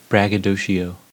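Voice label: "braggadocio", US English; pronunciations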